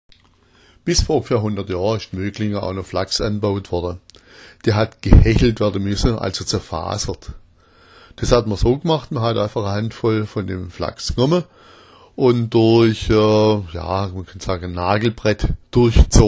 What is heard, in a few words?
hechelmacher, schwaebisch